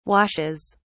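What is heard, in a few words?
"washes", British English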